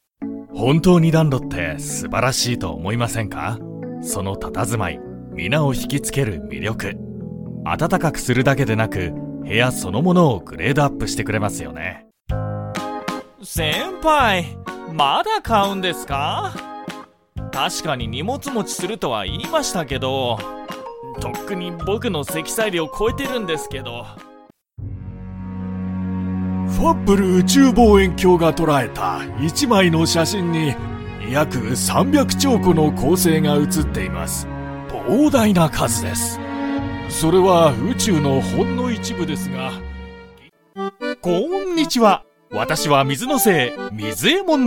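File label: Japanese, Characters; GoLocalise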